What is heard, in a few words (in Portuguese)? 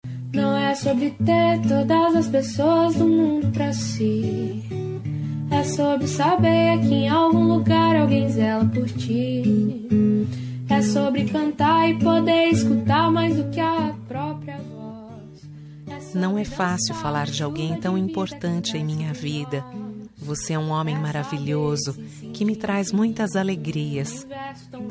dia dos pais feminina